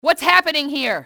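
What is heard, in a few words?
whats happening here, english, female; XBOX; enemy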